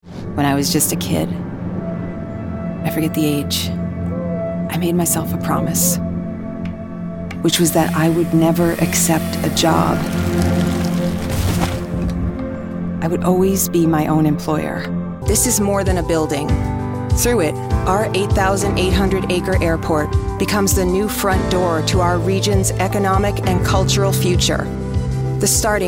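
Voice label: American English narration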